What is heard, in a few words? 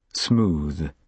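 "smooth", British English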